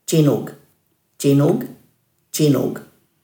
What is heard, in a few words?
"djinug", Dhurga